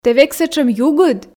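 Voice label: "тэ век сэтшӧм югыд", Komi, female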